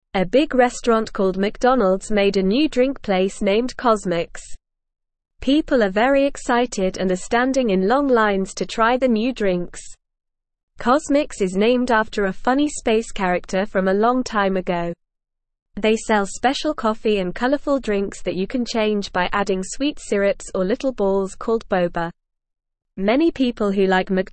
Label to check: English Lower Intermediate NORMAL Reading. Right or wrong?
Right